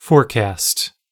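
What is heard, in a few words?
"forecast", English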